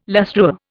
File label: "lustreware", Indian